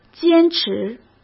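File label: "坚持", Mandarin Chinese; hsk; chinese-flashcards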